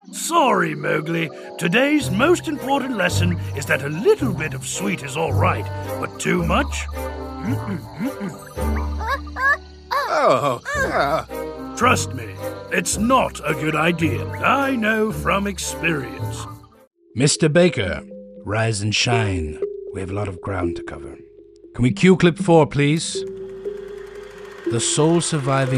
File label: voiceover animation; New York